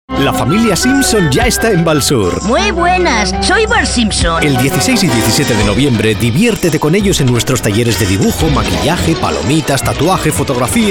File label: very animated